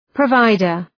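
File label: "provider", English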